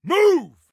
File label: Team Move, Soldier Male; Soldier Male 03